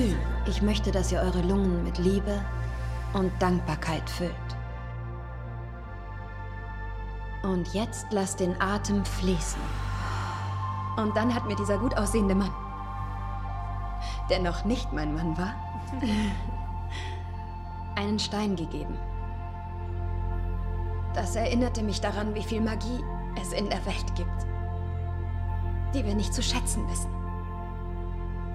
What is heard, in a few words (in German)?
Milla Meditaion, APPLE CIDER VINEGAR (Auszug)- meditativ